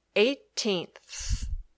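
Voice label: "eighteenth", English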